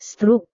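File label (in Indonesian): "struk", Indonesian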